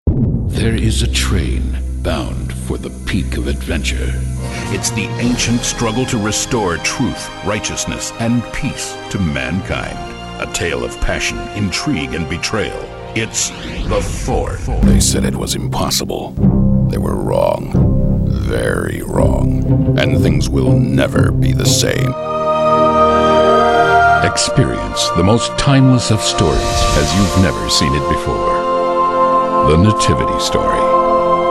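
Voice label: Trailer voice overs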